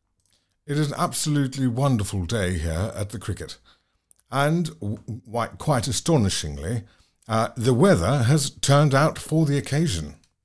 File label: rp accented english